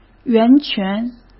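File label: "源泉", Mandarin Chinese; chinese-flashcards; hsk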